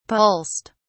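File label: "pulsed", British English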